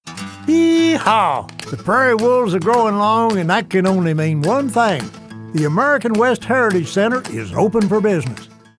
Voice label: cowboy